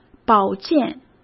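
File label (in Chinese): "保健", Mandarin Chinese; chinese flashcards; hsk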